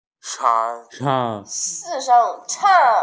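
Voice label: "chang4", Mandarin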